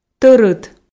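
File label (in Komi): "тӧрыт", female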